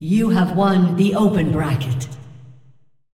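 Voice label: voice announcer female tournaments win open bracket generic; Clash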